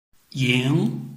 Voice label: "ying2", Chinese